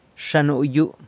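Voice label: "Xa nuyu", San Lucas Quiavini Zapotec; How are you formal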